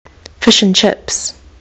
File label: fish and chips, kiwi english